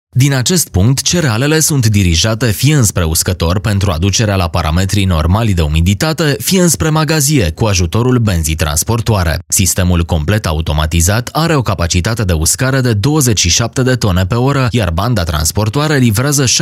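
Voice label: romanian male narration; voice demos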